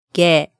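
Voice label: "ge", Japanese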